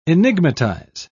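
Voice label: "enigmatize", British English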